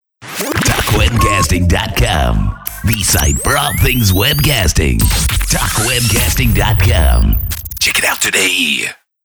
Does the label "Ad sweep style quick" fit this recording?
Yes